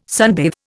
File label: "sunbathe", Indian